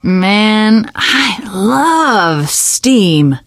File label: steam, teengirl; video game; source engine; left4dead2; survivor